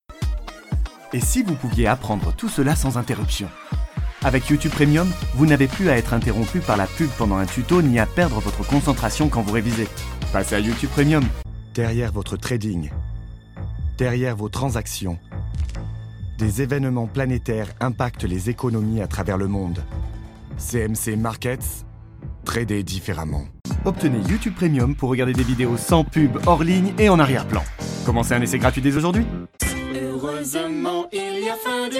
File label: French